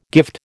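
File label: "gift", American English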